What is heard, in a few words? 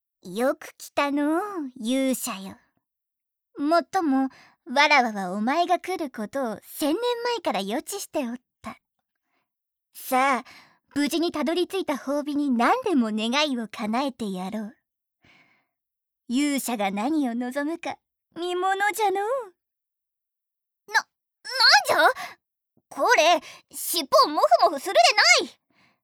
Nojaloli, のじゃロリ